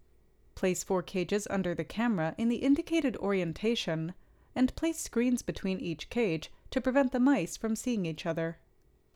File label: Voiceover